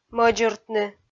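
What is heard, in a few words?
"мӧдзыртны", Komi, female; kpv; audio dict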